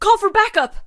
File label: war call for backup, female voice over; kenney voiceoverpack